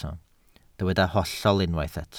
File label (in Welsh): "hollol" Welsh